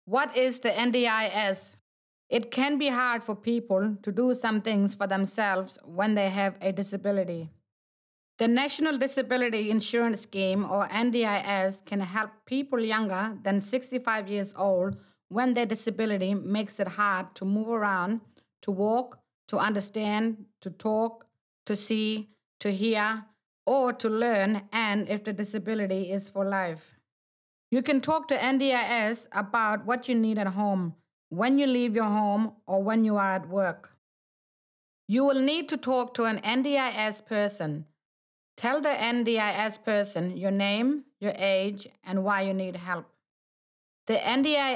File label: English